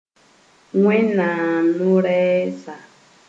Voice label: "Wẽnnaam no-rɛɛsa", Moore

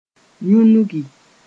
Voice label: "yũnugi", moore

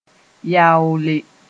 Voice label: "yaoole", moore

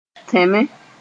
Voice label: "tẽme", Moore

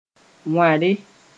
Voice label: "wãre", moore

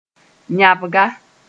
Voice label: "yãbga", moore

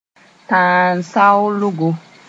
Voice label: "tãan-saoolgo", moore

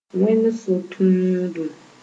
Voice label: "Wẽnd so-tũudum", Moore

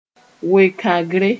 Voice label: "we-kãgre", moore

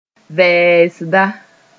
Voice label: "vɛɛsda", moore

